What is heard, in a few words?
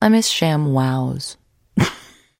trader monolog; 商人杰恩（七日杀）